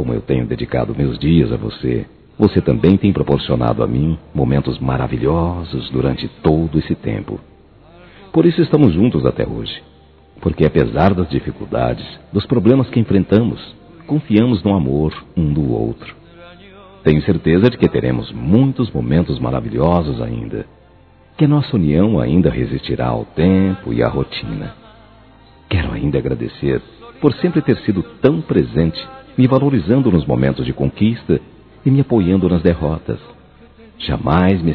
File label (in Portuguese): Aniversário Esposa Masculino; Aniversários; ANIVERSARIO romantico